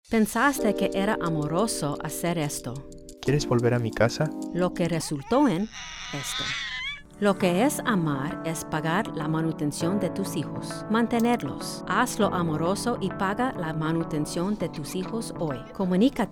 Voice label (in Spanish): commercial Radio SPA; modern child support campaign